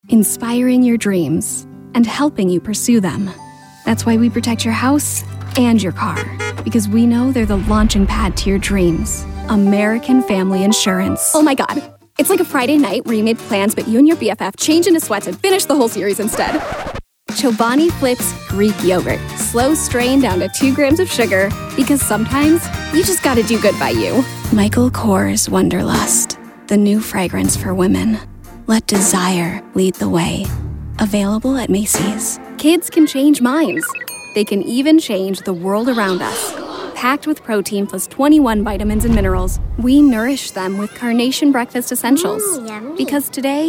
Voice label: Commercial